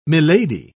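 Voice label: "milady", British English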